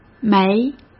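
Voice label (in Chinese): "枚", Mandarin Chinese; hsk; chinese-flashcards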